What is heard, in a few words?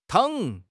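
"tang3", Mandarin Chinese; HSK4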